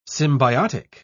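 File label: "symbiotic", British English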